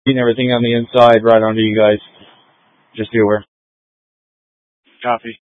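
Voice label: Incident Tactical, scanner audio recording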